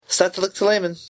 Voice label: kazakh good luck